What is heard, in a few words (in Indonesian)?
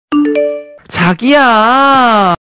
nada notifikasi jagiya chagiya suara cowok